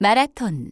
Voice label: Korean marathon; samsung YP-U5; Audio User Interface

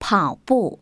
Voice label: chinese run; samsung YP-U5; Audio User Interface

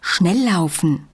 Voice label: German walk fast; samsung YP-U5; Audio User Interface

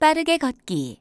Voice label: Korean walk fast; samsung YP-U5; Audio User Interface

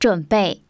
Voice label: chinese ready; samsung YP-U5; Audio User Interface

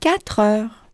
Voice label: french 9 hour; samsung YP-U5; Audio User Interface

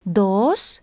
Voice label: Spanish count 1; samsung YP-U5; Audio User Interface

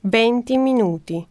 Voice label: italian 20 min; samsung YP-U5; Audio User Interface

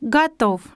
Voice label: russian ready; samsung YP-U5; Audio User Interface

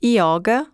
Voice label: Portuguese yoga; samsung YP-U5; Audio User Interface

